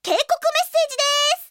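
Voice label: Japanese anime girl voice sounds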